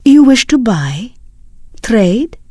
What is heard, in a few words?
Draenei Female Military Vendor